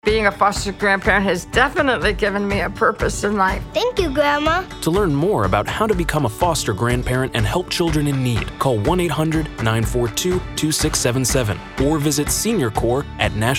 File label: RADIO; CNCS; Foster Grandparents